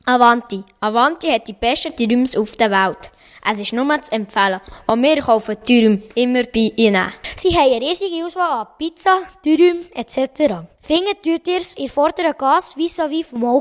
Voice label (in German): Werbung; Avanti; Golaten